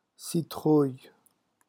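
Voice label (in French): "citrouille", French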